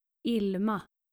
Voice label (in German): "ilma", skandinavische maedchennamen